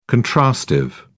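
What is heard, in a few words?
"contrastive", British English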